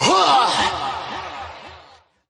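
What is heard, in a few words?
"huh", vocal sample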